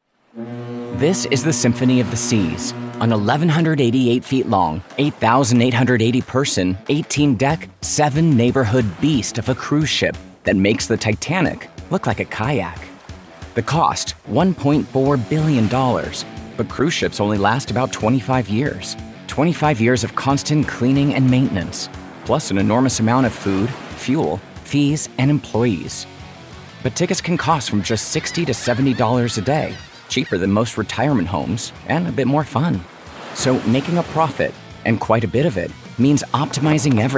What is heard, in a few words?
english american promos